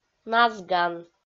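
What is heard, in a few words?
"назган", Komi, female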